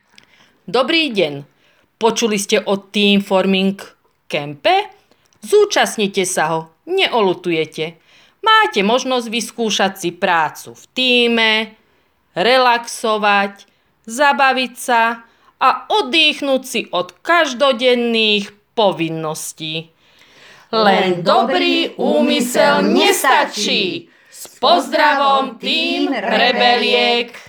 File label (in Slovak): Reklama; reklamne videa